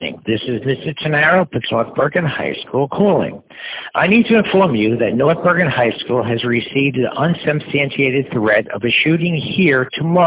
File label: Voicemail; NBHS